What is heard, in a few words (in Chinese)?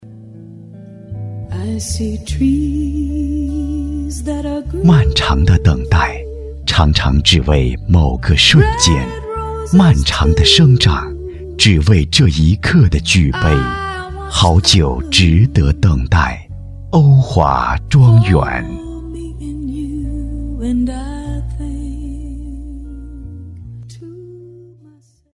广告 品牌形象 庄园葡萄酒 优雅, 男国